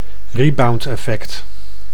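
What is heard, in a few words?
"rebound-effect", Dutch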